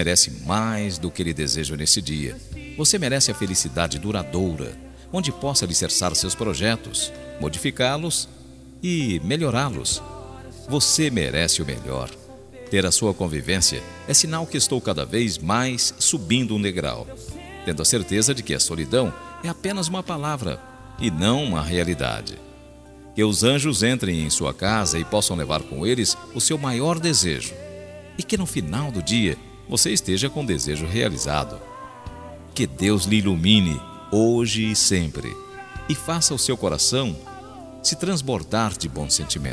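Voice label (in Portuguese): ANIV NORA male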